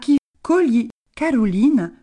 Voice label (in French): question (QUE … ?), Gravona – sud